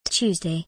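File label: "Tuesday", British English; days-of-the-week-in-english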